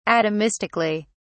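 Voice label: "atomistically", English, uk British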